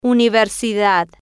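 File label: "universidad", Spanish, female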